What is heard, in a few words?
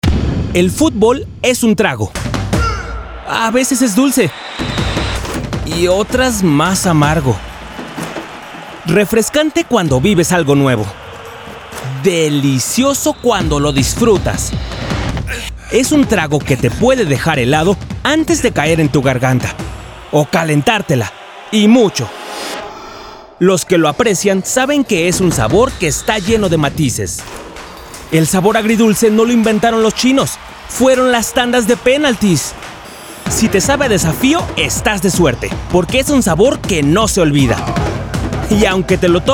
Corporate